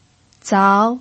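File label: "zao2", Mandarin Chinese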